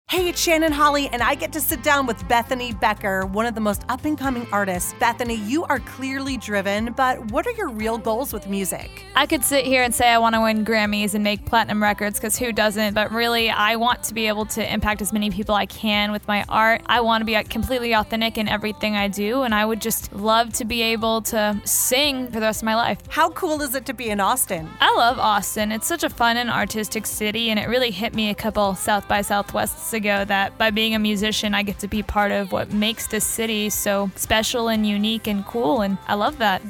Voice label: radio